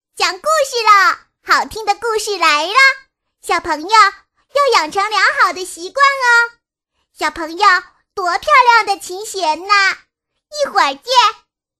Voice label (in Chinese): 女, 童声, 小女童